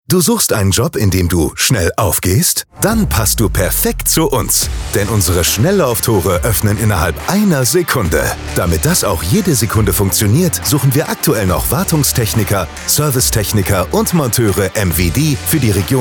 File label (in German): Funkspot; Butzbach